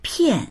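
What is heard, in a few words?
"pian4", Mandarin Chinese; 骗; 騙す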